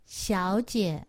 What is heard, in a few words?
"xiaojie", Chinese; Survival Chinese